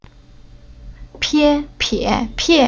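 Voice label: "Pie", Chinese syllable; Уроки фонетического блока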